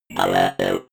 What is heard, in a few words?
robot voice power low fx